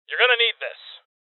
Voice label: Voices Throw Clip Male; rebel cops 2019

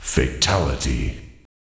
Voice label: Fatality Announcer

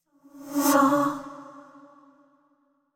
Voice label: worried short; yamiko

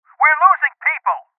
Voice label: Voices Heavy Losses Male

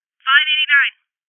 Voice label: Voices Trap Bomb Spotted Female

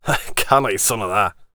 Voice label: VO warrior conversation emotion joking

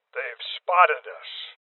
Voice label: Voices Alarm State Male Old

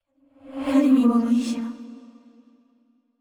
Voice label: sad; yamiko

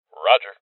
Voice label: Confirmation Male; Voices; Rebel Cops

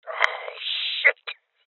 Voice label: Voices Injury Male Old

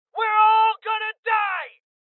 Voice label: Male Young; rebel cops 2019 gamerip; Voices HeavyLosses

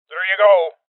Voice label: Voices Throw Clip Male Old; Rebel Cops

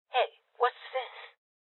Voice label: Voices Loot Spotted Female Old; rebel cops 2019 gamerip

